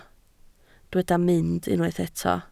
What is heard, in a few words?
"mynd", Welsh